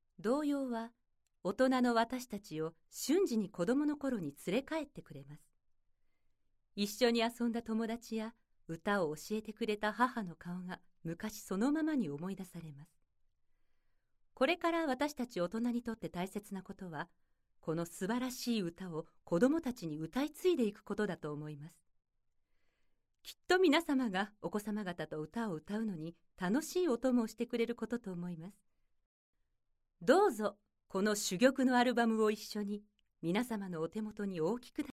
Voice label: narration